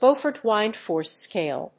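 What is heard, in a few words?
"Beaufort wind-force scale", English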